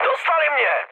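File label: aircraft destroyed, Czech, mood high; War Thunder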